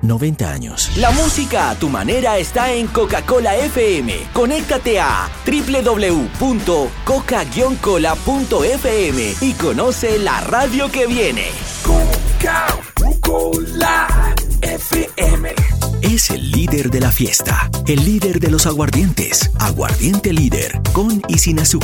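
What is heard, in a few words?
Spanish Latin American General; GoLocalise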